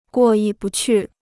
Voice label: "过意不去", Chinese